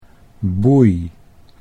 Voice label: "boei", Dutch

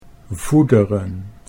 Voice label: "voederen", Dutch

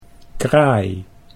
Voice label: "kraai", Dutch

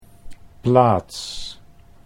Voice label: "plaats", Dutch

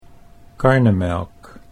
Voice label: "karnemelk", Dutch

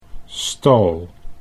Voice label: "stal", Dutch

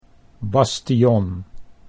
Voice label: "bastion", Dutch

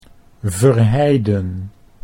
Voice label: "Verheiden" Dutch

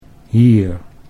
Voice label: "hier", Dutch

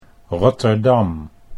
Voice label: "Rotterdam", Dutch

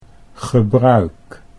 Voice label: "gebruik", Dutch

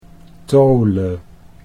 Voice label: "tole", Dutch

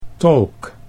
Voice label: "tolk", Dutch

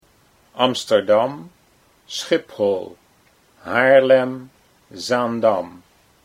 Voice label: Amsterdam Schiphol, Dutch

